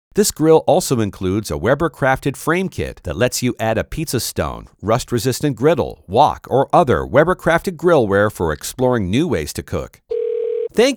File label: IVR